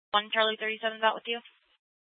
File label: Mesa PD Central Dispatch, scanner